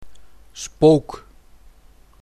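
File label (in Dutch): "Spook", Dutch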